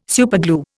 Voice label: "superglue", Indian